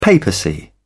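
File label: "papacy", British English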